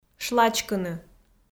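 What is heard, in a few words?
"шлачкыны", Komi, female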